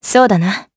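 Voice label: Vox, voices, Japanese; Seria; kingsraid audio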